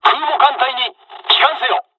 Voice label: evac, dialogs japanese; War Thunder